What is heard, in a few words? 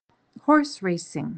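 "horse racing", English; useful words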